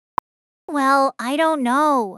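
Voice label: speaker female fast high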